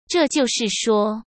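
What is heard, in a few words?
"这就是说", Mandarin Chinese; hsk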